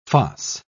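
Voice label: "foss", English, British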